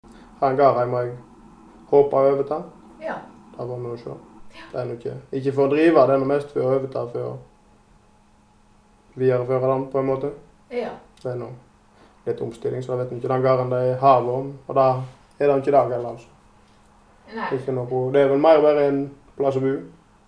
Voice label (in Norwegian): Kvam, dialekt